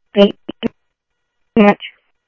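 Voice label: fire radio dispatch, Phoenix Fire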